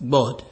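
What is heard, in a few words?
"gbod", Nigerian Pidgin, male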